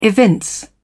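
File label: "evince", British English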